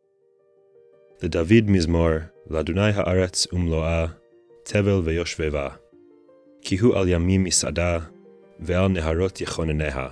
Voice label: poetry and prayer psalm hebrew